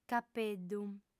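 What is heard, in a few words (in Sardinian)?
"capeddu", female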